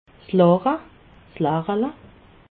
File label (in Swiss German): "lache", alsace